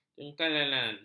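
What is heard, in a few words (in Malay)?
"jengkelenan", Malay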